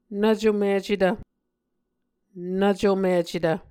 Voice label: "nvcomicetv", Muscogee